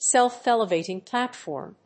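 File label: "self-elevating platform", English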